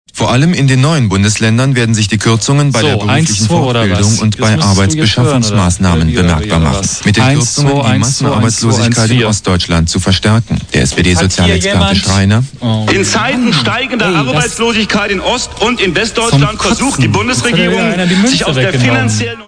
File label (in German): zwei sprecher, radiopannen